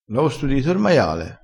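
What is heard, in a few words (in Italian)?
"costudi", dialettale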